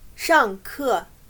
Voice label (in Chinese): "上课", chino